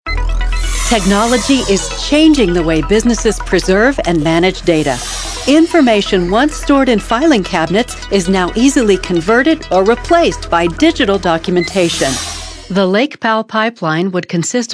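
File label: narration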